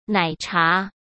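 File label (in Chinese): "奶茶", Mandarin Chinese; chinese flashcards; hsk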